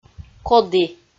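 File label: "коді", Komi, female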